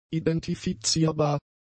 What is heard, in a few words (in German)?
"identifizierbar", German; adjectives